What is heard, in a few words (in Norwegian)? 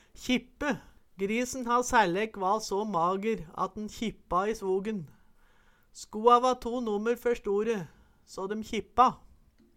kjippe, Numedalsmål